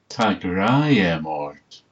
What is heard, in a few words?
i love you, manx